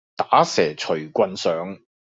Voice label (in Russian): Голоса Гонконгский; commonvoice